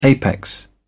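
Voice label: "apex", British English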